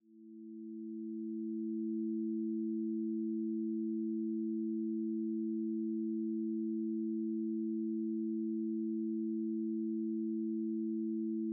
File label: recitation; nawawi forty